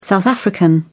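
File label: "south african", English, British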